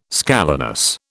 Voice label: "scalenus", British